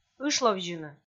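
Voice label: "ышловзьыны", Komi, female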